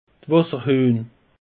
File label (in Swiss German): "wasserhuehn", alsace; peche